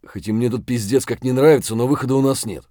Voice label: mutter; Escape from Tarkov

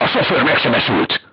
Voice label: voice message driver wounded, hungarian; crew tanks

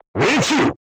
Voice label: voice message direct hit, crew tanks japanese

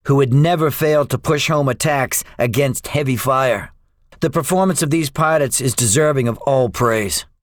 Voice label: debriefing, english; War Thunder

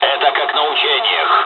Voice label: japanese first wave, dialogs russian; War Thunder

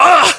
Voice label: pain, voiceovers english

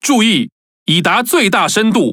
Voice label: Speech Alarms Sub Max Depth, Languages ZH TW; World Of Warships

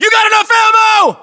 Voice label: status check, us

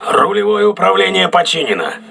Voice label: rudder repaired, crew ships russian

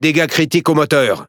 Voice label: Fr Speech Defective Modules Engine Damaged; World Of Warships

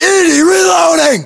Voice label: inform reloading, US; localized english; battlechatter

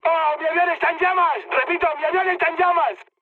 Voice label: on fire, mood high, speech; War Thunder

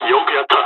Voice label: dialogs japanese; War Thunder

